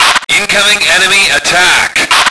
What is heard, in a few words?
male incoming; lmctf